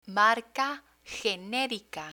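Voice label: "marca generica", Spanish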